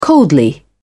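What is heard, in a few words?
"coldly", British English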